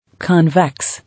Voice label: "Convex", English